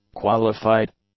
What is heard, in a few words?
"qualified", English; adjectives